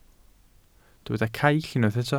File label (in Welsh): "caill", Welsh